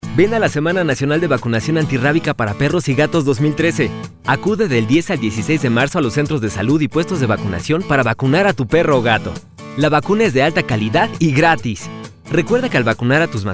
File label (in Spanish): perifoneo hombre; zoonosis